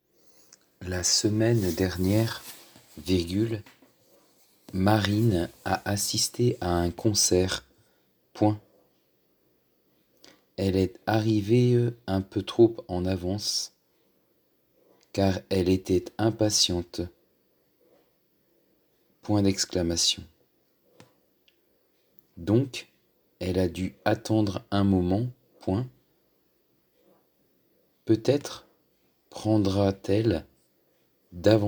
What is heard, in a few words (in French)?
Dictée